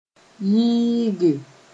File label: "yɩɩge", Mooré